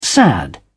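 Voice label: "sad", English, British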